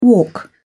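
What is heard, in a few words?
"walk away", British English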